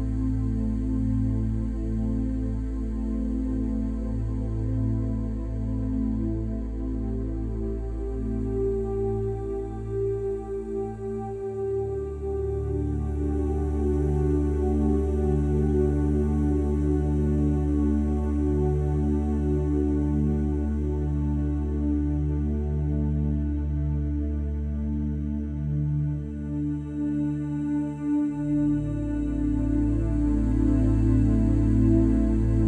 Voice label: Adolescent Meditation